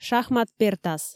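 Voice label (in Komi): "шахмат пертас", Komi, female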